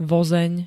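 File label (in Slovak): "vozeň", Slovak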